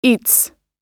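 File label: "eats", American English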